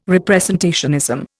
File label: "representationism", Indian